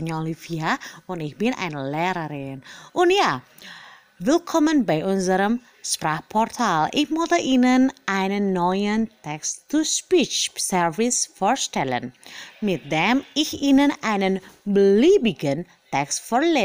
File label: German Character